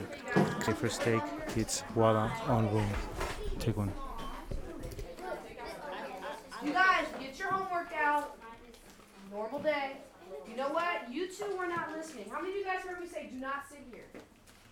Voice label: Teacher Instructs; Electa Quinney

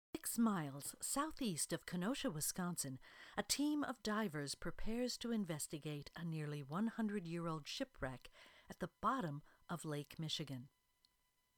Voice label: Narrator Submission; Shipwrecks

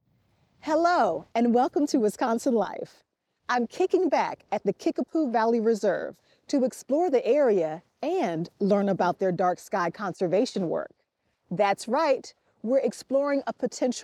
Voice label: Host Intro Dialogue; Wisconsin Life; Kickapoo

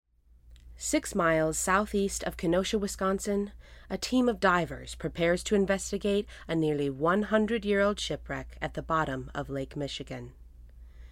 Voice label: Narrator; Shipwrecks

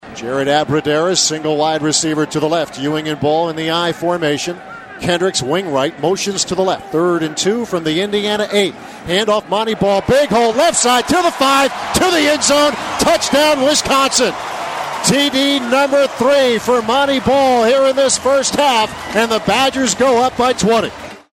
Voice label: Football Radio; wis 30 ind 10; UW Athletics; Bucky Badger

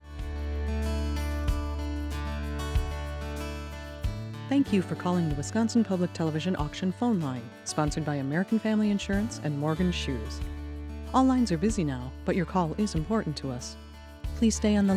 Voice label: Phone Message; Auction Phone Message 2014

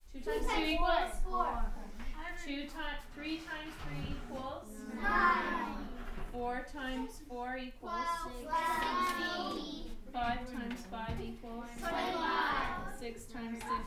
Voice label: Kids, Class, Recite, Table; Electa Quinney

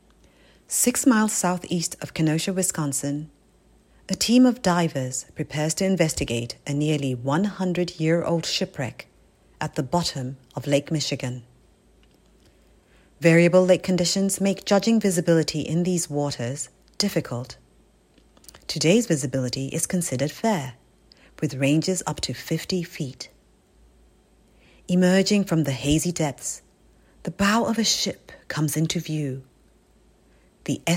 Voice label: Narrator; 360 Video; Shipwrecks

